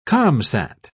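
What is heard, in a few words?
"comsat", English, British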